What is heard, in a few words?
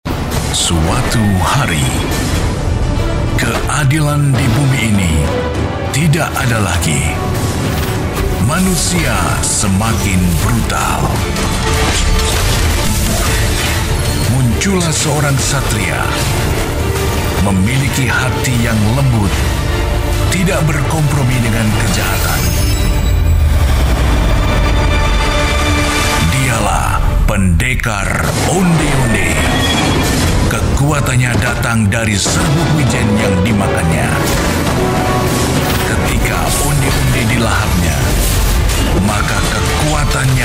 movie trailer